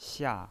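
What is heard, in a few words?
"xia", pinyin voice, fourth tone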